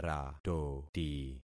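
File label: "ratoti", concatenated syllables; Affixing experiment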